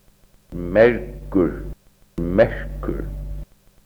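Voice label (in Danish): færøsk mergur; [ˈmɛɹg̊uɹ ˈmɛɹ̥g̊ʰuɹ]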